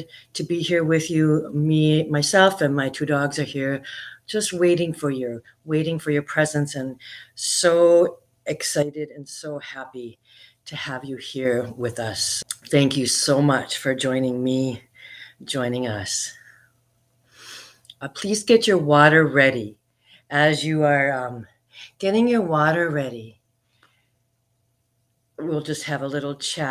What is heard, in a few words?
Global Animal Healing Meditation